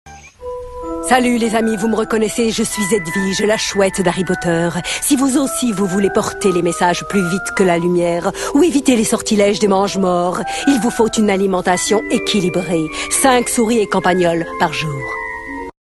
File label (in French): chouette, feerique et legendaire